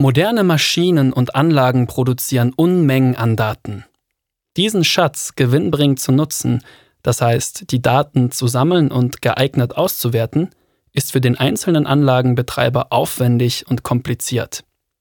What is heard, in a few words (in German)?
Werbung